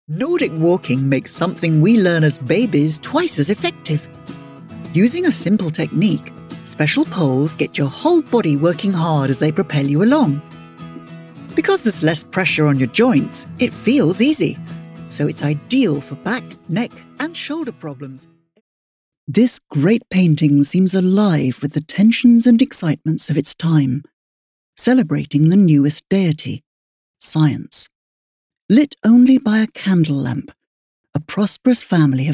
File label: Corporate